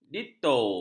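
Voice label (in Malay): "ditto", Malay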